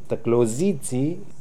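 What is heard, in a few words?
"Dglozidzi", pronunciations; Fourth Way; Beelzebub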